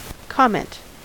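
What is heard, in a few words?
"comment", American English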